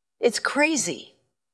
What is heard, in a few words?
VoiceActing es F